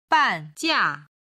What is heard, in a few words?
"banjia", chinese